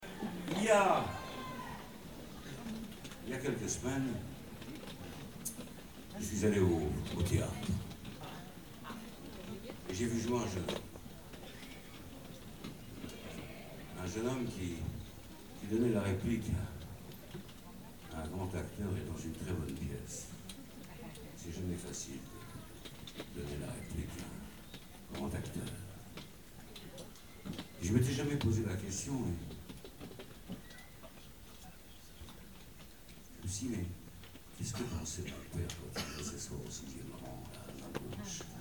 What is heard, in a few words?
Monologue; 2013 Olympia